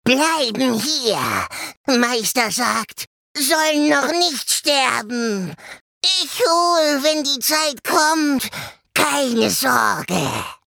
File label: RPG Creature Small; Games - Kleine Kreatur